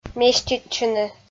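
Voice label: "меститчыны" Komi, female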